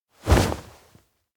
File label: react voice; aino; anemoi パッケージ版